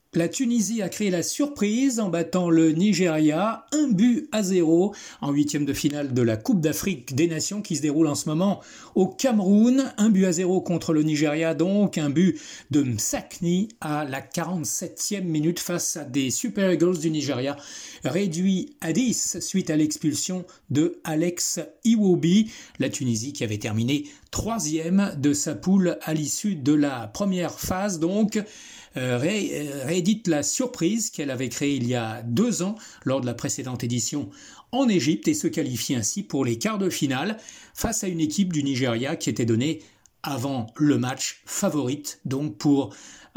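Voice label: French, General; GoLocalise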